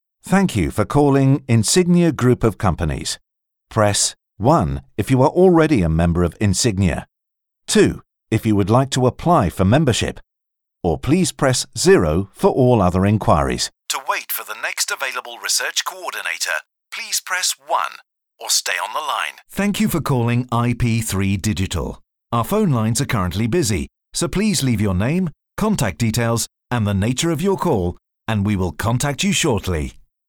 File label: english british ivr